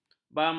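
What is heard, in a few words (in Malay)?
"bambun", Malay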